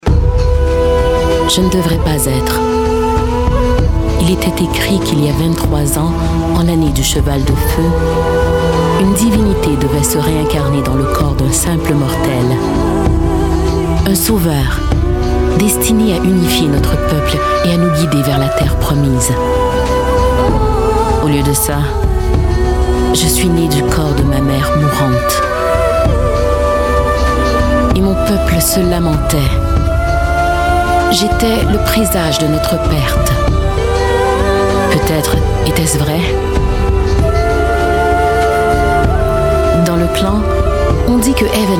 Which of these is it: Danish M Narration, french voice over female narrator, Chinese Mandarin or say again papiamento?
french voice over female narrator